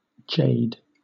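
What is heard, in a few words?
"jade", British English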